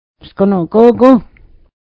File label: how are you, mohawk